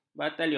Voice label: "batalion", ms